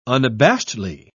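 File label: "unabashedly", British English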